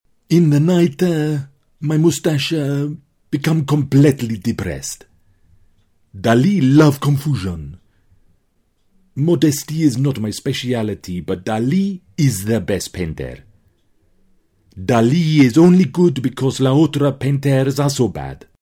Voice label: imitation english voiceover; locutor inglés; hablando en inglés; locutor inglés imitando a Dalí hablando en inglés